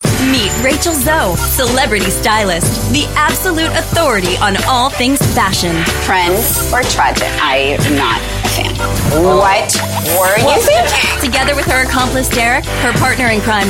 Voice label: US English Sassy Promo, Female; Lifetime

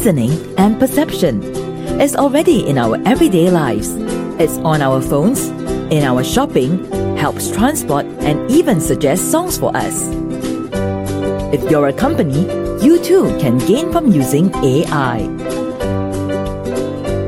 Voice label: Asian English Artificial Intelligence

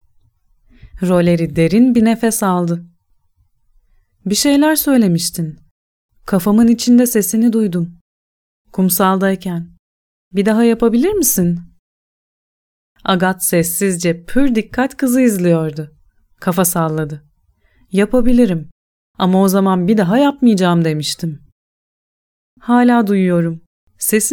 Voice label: Turkish Audiobook, Female

